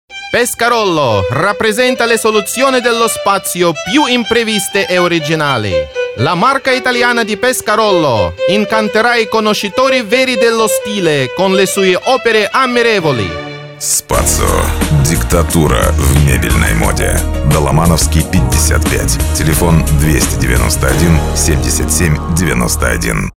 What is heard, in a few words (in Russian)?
Реклама мебельного салона (ит.) (RU-IT)